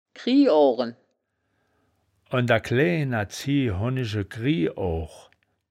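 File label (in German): "krieooren", wittlicher woerterbuch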